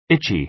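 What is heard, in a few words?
"itchy", American English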